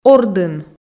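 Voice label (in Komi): "ордын", Komi, female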